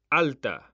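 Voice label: "alta", Spanish, male; mekanta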